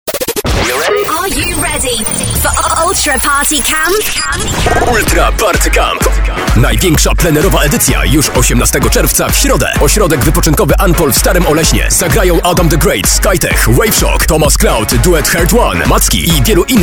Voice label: spot